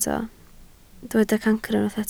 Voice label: "cancr", Welsh